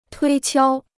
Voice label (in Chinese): "推敲", Chinese